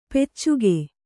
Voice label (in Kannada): "ಪೈಚುಕೆ", kannada